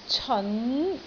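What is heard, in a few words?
"ceon2", Cantonese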